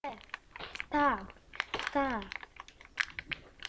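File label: "staff", spoken word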